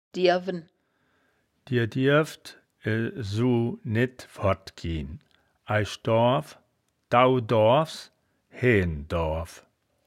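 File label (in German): "dierwen", wittlicher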